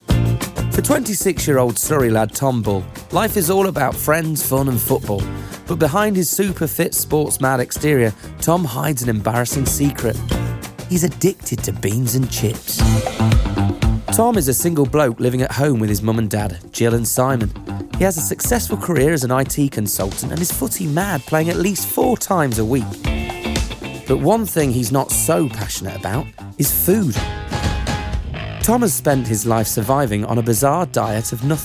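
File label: narration upbeat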